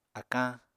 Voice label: "akañ", Apatani